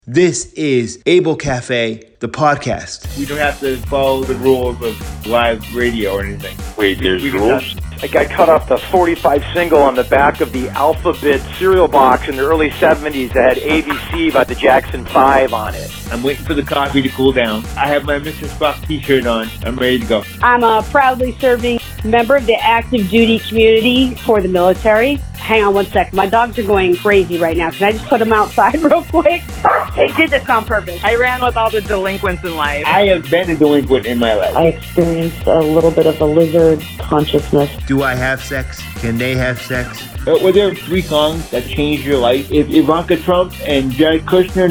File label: promo